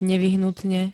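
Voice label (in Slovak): "nevyhnutne", Slovak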